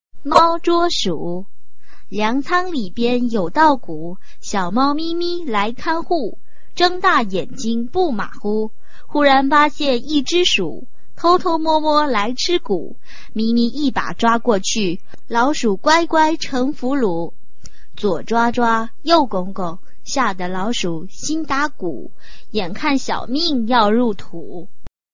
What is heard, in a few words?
chinese tongue twister